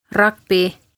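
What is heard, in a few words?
"Ragbi", Estonian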